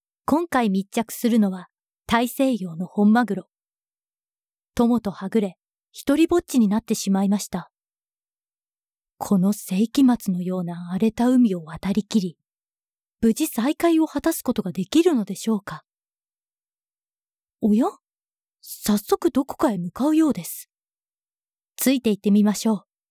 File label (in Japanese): ナレーション